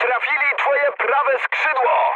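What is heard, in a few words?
right wing mood high, speech Polish; War Thunder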